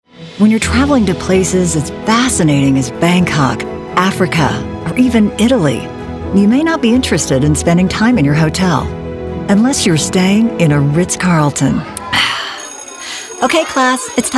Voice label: Commercial Demo